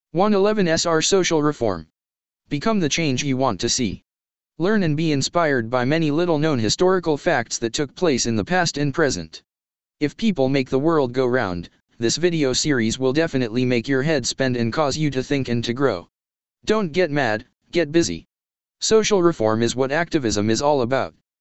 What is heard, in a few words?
text 2 voice